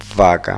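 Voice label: "vaca", Spanish; fonetica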